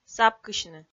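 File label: "сапкысьны", Komi, female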